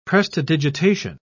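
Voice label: "prestidigitation", British English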